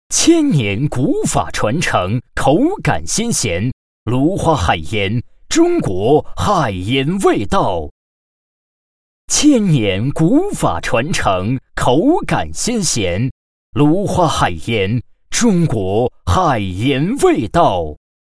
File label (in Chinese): 男 广告 海盐 大气有力; 芦花海盐